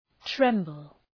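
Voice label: "tremble", English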